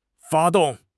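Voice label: "发动", Mandarin Chinese; HSK; HSK6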